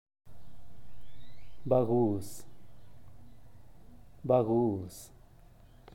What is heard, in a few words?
"baguz", zapoteco teotitlan